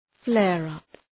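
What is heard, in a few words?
"flare-up", English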